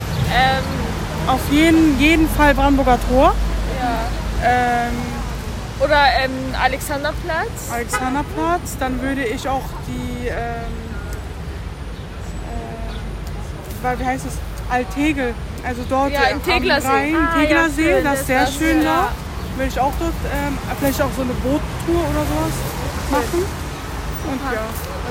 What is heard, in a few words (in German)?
Zwei Maedchen Wedding Was wuerdet ihr Fremden zeigen